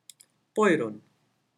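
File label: "buyurun", Turkish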